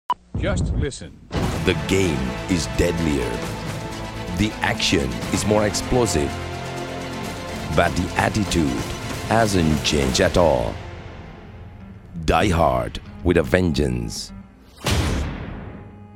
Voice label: Movie Trailers; Die Hard

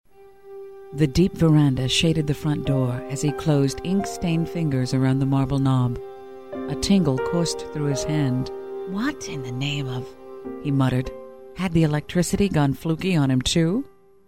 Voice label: Audio Book Reads

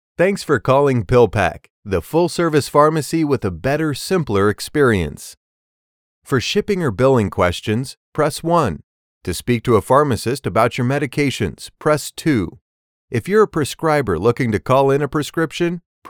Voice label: Telephone

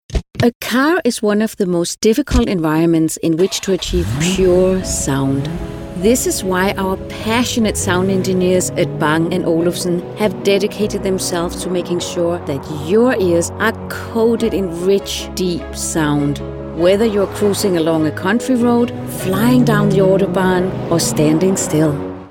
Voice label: Commercial

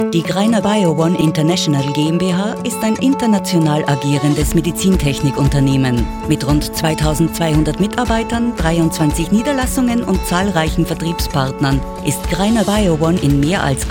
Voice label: corporate; Greiner Bio-One

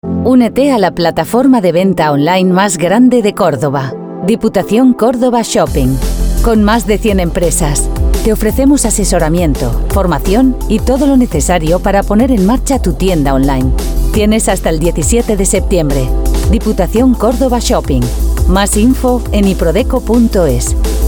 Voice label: Radio Promo

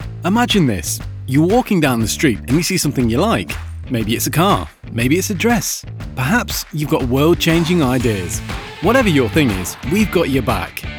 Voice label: Banking Promo; English (British)

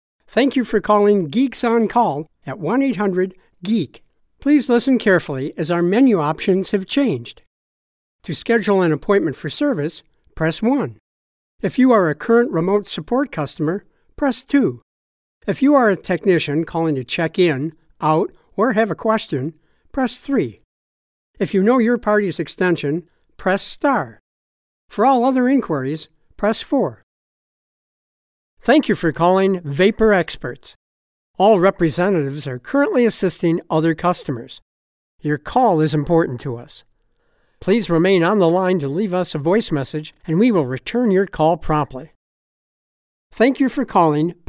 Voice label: On Hold IVR

